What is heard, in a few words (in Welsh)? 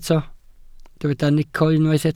"nico", Welsh